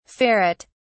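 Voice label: "ferret(s)", English, British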